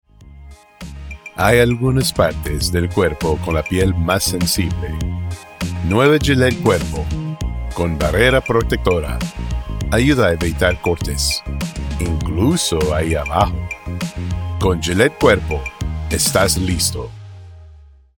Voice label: Body Spanish; Gillette